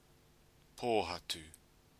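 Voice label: "pohatu", Maori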